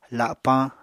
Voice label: "lapañ", Apatani